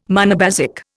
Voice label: "monobasic", Indian